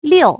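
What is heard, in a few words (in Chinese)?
"六", Chinese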